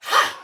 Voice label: Attack, Soldier Female, Battle; Sinecure

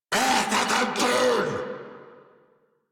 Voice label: Player Spotted, Battle Barks, en; Grineer Ghoul Drill Sargeant

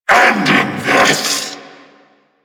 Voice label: Vocal Attack

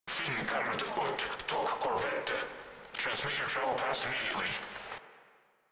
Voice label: Controller, Radio Interceptions

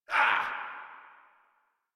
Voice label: Threatened, Grineer Male; Warframe

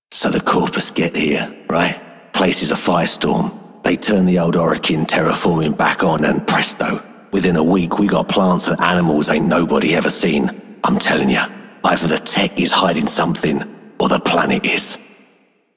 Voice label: Male Worker, Male One, en; Dialog; Warframe; Solaris Venus

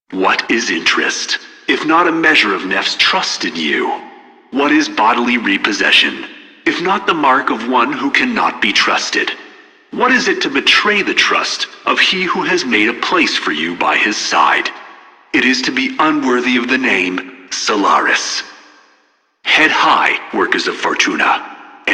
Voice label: PA system; en; Dialog; Warframe; Solaris Venus

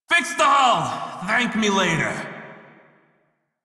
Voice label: Crew Fix Breach Male en; Dialog; Warframe; Railjack Crew; Red Veil

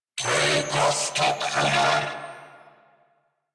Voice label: Attack Grineer Male; New War; Narmer

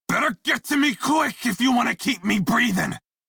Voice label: Crew Need Revive Male en; Dialog; Warframe; Railjack Crew; Red Veil

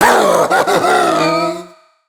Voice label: Treasure Box Pain; Warframe

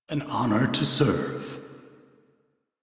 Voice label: Crew Greet, Male; Dialog; en; Warframe; Railjack Crew; Arbiters Hexis

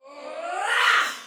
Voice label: Attack Heavy Soldier Female, Battle Barks; Sinecure

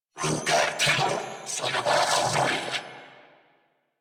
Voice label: Player Spotted Grineer Male; New War; Narmer

